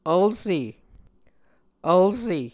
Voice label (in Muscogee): "vlse", Muscogee